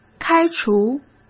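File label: "开除", Mandarin Chinese; hsk; chinese-flashcards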